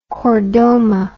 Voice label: "chordoma", English

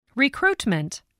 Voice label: "recruitment", voice, english

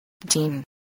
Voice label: "dean", English

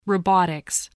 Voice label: "robotics", English spelling

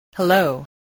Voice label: "hello", English, spelling

